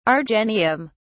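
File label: "argenteum", British English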